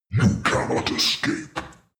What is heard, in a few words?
demon dark voice thick speak you can not escape